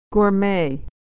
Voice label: "gourmet", pronunciation